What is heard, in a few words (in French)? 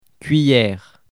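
"cuillere", French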